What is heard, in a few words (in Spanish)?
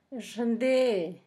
calentura, Zapoteco, San Pedro Mártir; Enfermedades; INALI